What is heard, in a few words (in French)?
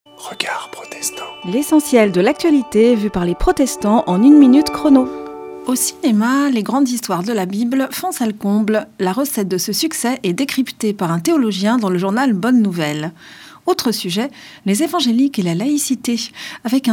Spot radio; Regards protestants